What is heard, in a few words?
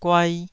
"guai2", Taiwanese syllable